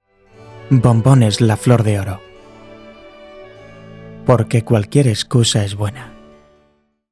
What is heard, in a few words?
SPANISH Male Adult; Ker Sound Studio Shanghai China